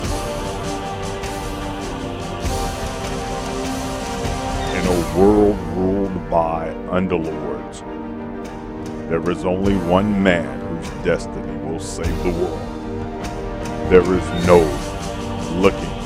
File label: Movie Blockbuster